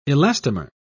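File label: "elastomer", British English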